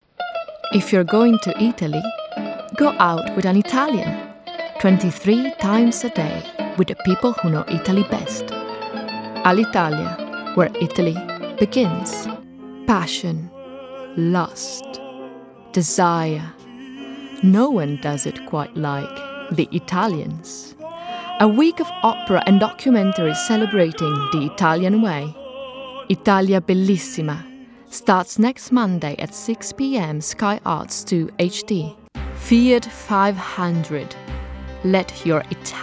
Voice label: COMMERCIAL VOICE ENGLISH